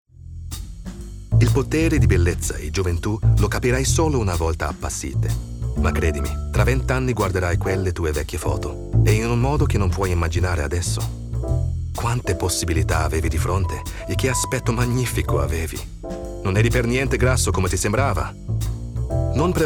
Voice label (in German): italienisch monolog; LOFT VOICES